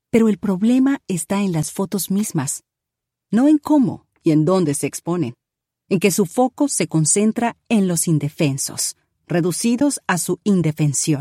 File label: audiobook spanish